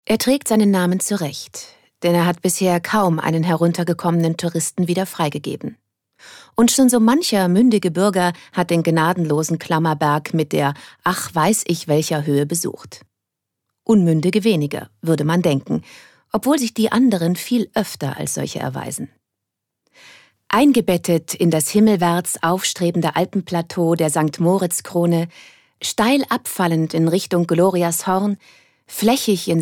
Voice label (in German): Kommentar Neutral